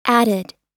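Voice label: "added", US English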